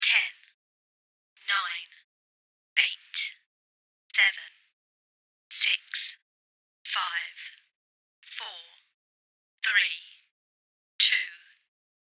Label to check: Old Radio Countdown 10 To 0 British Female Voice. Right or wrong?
Right